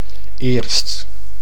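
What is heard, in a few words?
"eerst", Dutch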